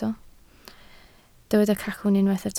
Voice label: "cacwn", Welsh

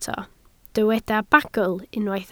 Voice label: "bagl" Welsh

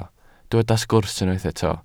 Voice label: "sgwrs" Welsh

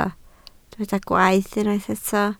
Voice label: "gwaeth" Welsh

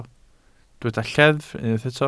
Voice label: "lleddf", Welsh

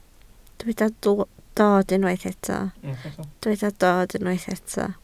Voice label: "dod" Welsh

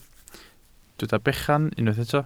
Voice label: "bechan", Welsh

